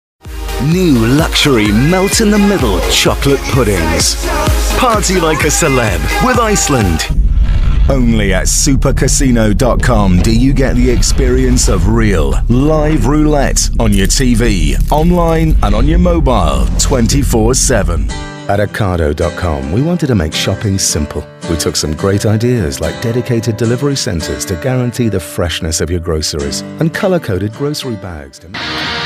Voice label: Ads